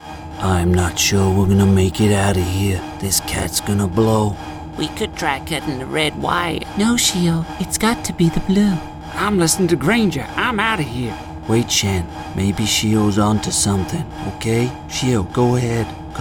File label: Cartoon Character